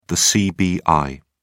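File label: "cbi", British English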